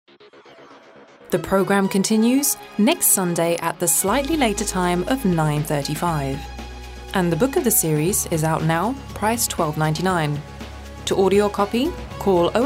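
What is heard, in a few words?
English, UK, female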